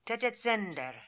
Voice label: "Tetetzender", pronounciations; Fourth Way; Beelzebub